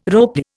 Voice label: "ropy", Indian